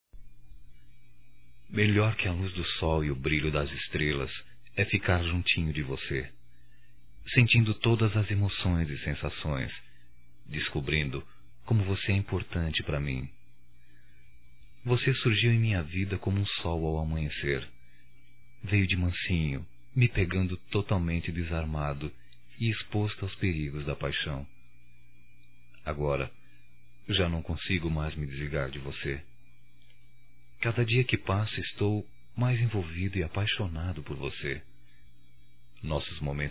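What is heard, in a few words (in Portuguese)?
voz Romântica, masculino; Telemensagens; Só Voz; Telemensagens 2017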